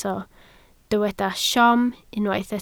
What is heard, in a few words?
"siom", welsh